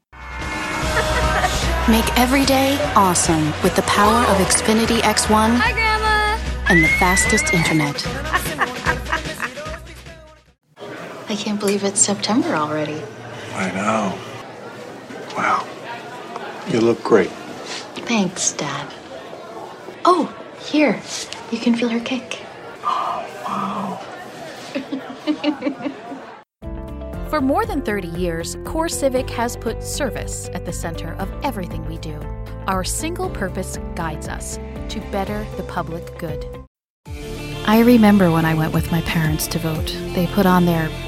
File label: commercial demo